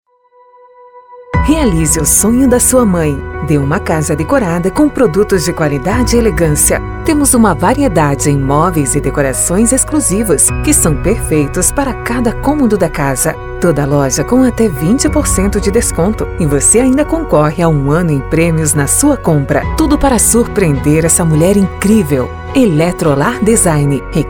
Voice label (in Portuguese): Spot; Simples Eletrolar